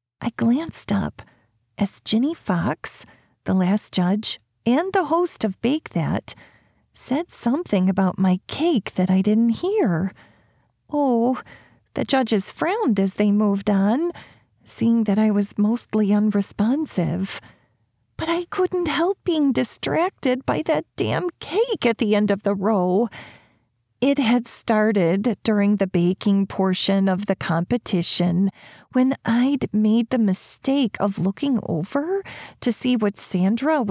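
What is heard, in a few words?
Midwest accent, Female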